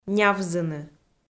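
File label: "нявзыны", Komi, female; kpv audio dict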